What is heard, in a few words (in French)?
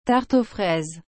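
"tarte aux fraises", French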